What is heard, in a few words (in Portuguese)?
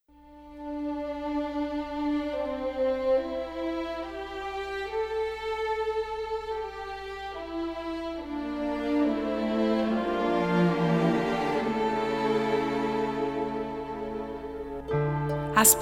Narração